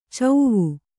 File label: "ಚೌವು", Kannada